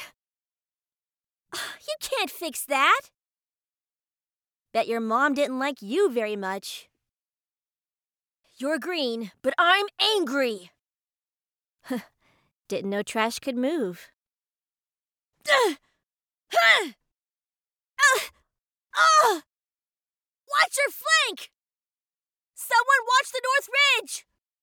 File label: Recruit Female